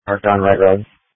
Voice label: Police Tactical Encrypted; sdr